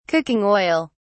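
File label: "cooking oil", British English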